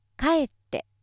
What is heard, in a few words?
female